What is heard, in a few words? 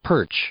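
"perch", English, male; verb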